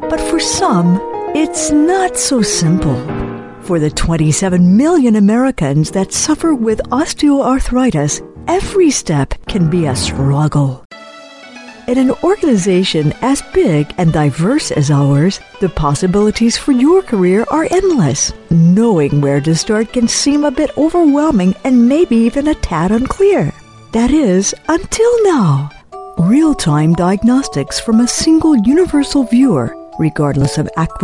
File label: eLearning Video Training